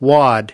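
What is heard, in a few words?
"wad", American English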